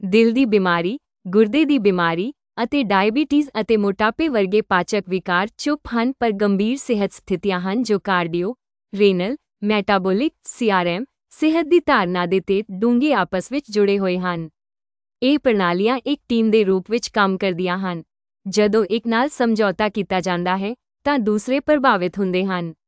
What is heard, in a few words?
Female Punjabi